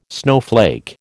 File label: "snowflake", American